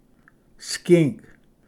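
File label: "skink", English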